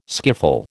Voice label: "skiffle", American

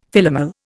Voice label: "Philomel", Indian; Dictionary

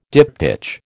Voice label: "diptych", American

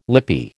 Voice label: "lippy", American

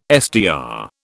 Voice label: "SDR", British

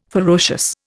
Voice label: "ferocious", Indian

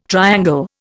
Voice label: "triangle", Indian

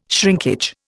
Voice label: "shrinkage", Indian

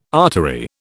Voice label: "artery", British

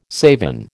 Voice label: "savin", American

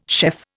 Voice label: "chef", Indian

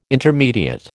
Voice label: "intermediate", American

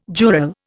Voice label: "jural", Indian

